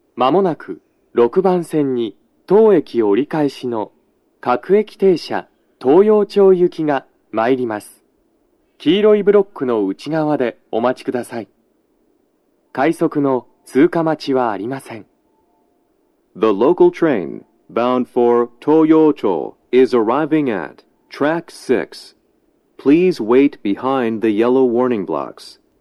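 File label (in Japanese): 接近放送 男声, 各駅停車 東陽町行; 快速 の通過待ちはありません; 収録者:こたけ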